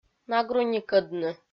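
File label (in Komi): "нагруникӧдны" Komi, female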